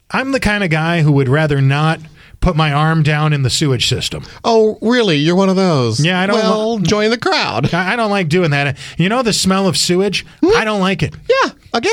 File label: RADIO AD; nicks plumbing radio ads